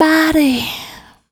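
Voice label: vocal female, Dance Vocals, spoken words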